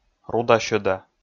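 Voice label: "руда-сьӧда", Komi, male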